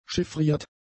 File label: "chiffriert", German; adjectives